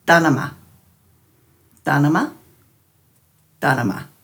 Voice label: "dhanama", Dhurga